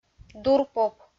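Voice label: "дурпоп", Komi, female; kpv audio dict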